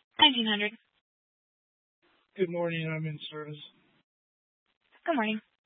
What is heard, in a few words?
Police Dispatch; Police 1 Dispatch